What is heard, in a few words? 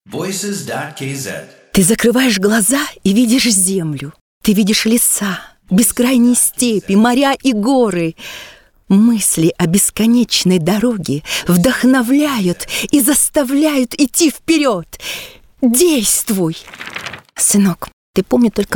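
russian dub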